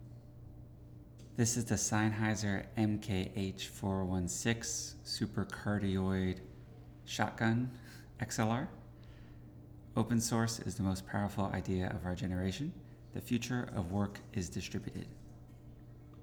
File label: sennheiser mkh416 mono